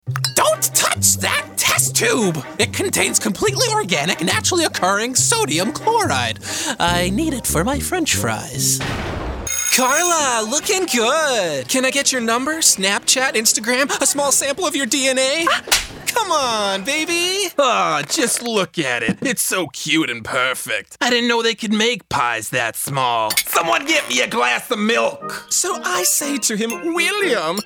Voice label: Character; TaDa

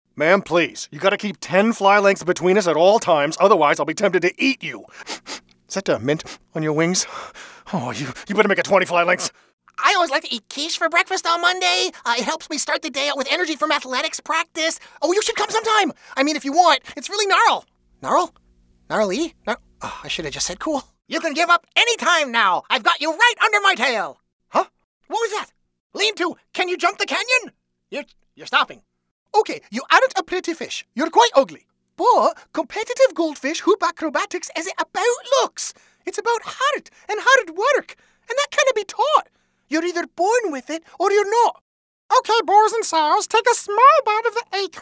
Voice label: Character; TaDa

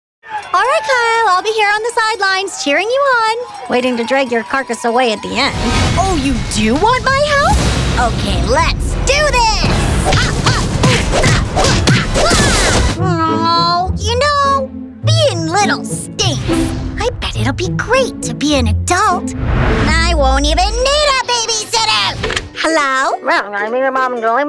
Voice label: Character

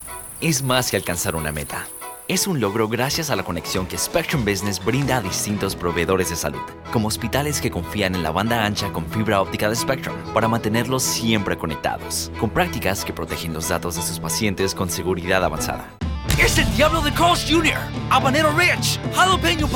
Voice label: commercial spanish

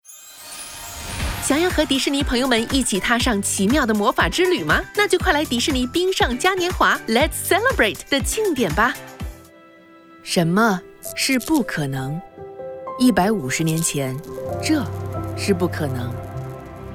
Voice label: Mandarin Commercial; TaDa

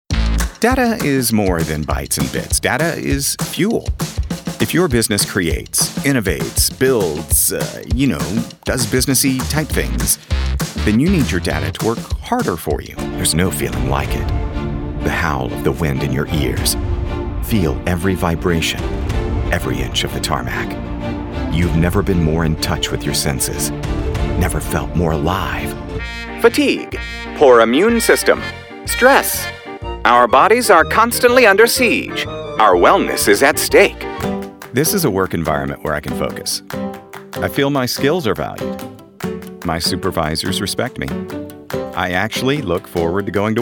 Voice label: Corporate Narration; TaDa

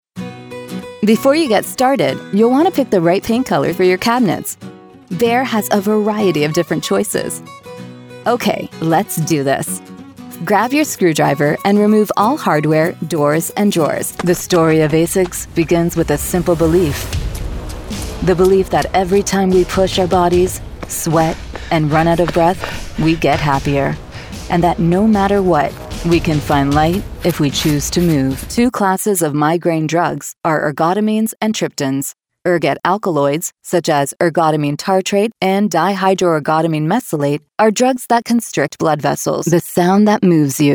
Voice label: Narration; TaDa

